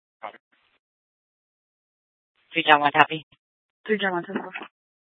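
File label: Scottsdale Police Department South Dispatch; Regional Wireless Cooperative (RWC) - Simulcast H- Scottsdale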